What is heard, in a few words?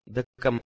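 "dekm", Proto-Indo-European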